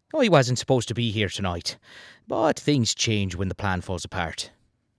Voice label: Irish Character

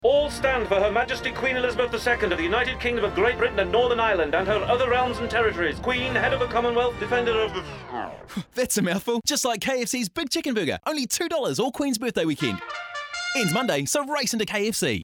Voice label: UK Town Crier

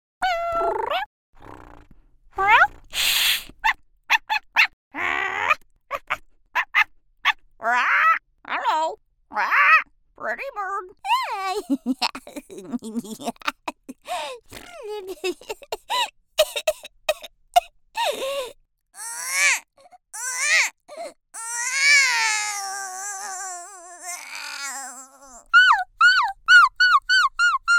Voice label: Voice Actor Creature

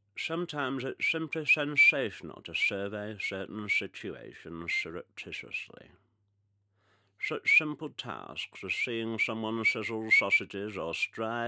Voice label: Loose False Teeth

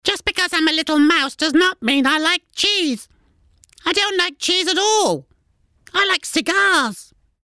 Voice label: Cartoon Mouse voice

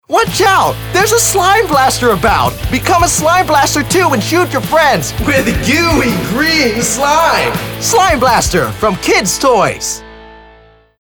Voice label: Commercial, kids commercial, smooth/sophisticated

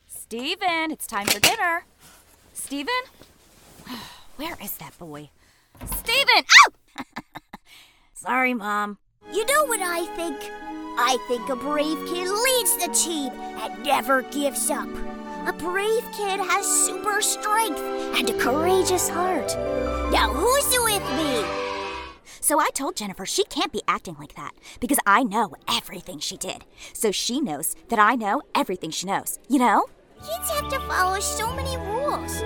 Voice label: Voice Actor Animation

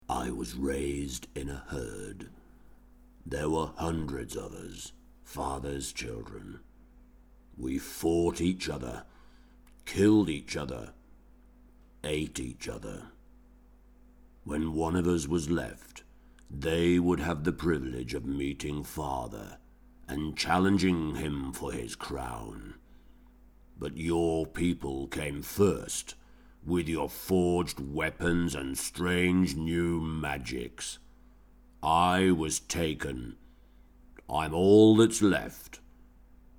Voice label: Shark voice

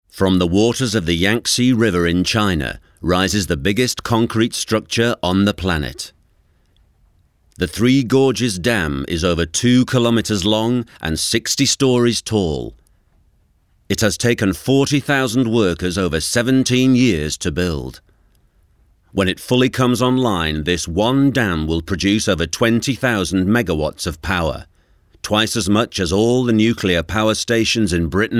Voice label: Documentary Narration

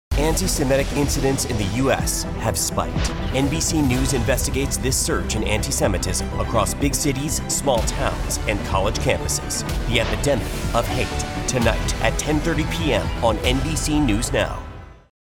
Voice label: intense serious informative; epidemic of hate

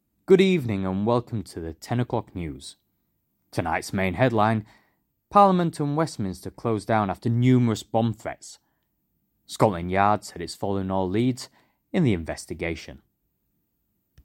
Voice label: British Neutral

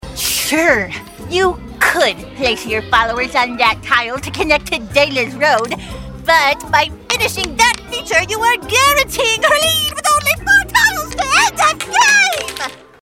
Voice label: lispy board game enthusiast

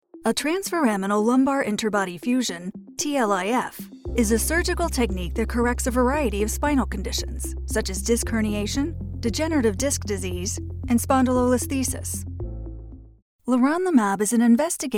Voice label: Medical narration

